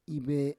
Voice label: "ive", Roon